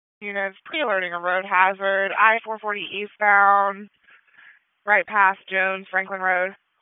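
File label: Raleigh Police Southwest District Dispatch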